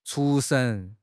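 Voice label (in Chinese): "出神", Chinese; HSK6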